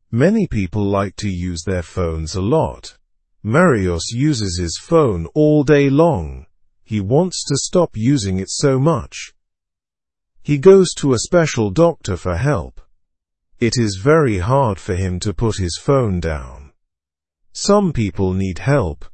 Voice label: learn english through news beginner slow; phone addiction looks like a real problem